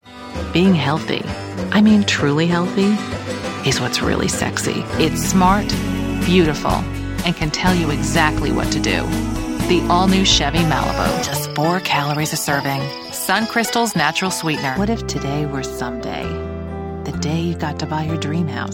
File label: Commercial